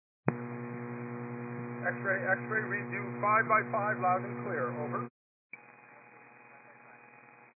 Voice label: 122 725MHz, scanner radio